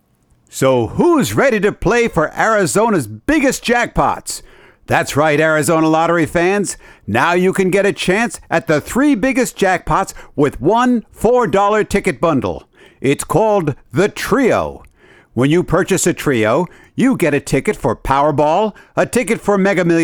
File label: radio tv promo